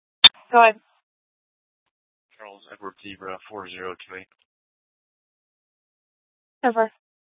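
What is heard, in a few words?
Scottsdale Police South Dispatch; Regional Wrlss Cooperative (RWC) - Smlcst H- Scttsdle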